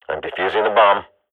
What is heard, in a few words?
radio bot defusing, swat; Counter Strike Model Pack; CS:GO